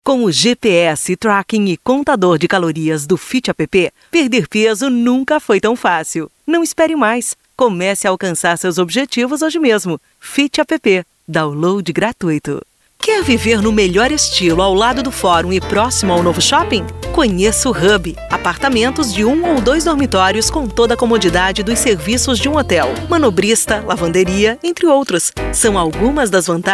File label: Portuguese